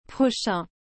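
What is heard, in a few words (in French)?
"prochain", French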